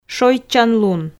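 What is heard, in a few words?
"шойччанлун", Komi, female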